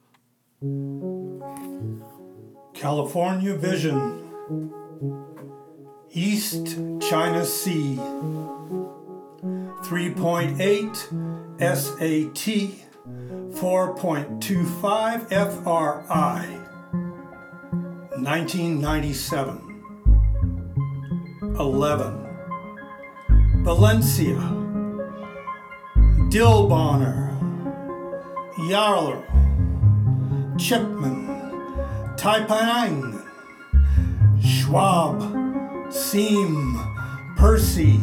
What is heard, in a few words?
vocal poem